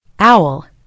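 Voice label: "owl", British English; gcompris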